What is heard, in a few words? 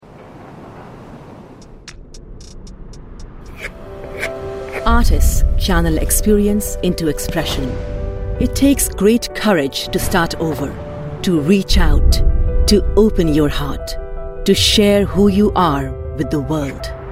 Commercial; IRC Courage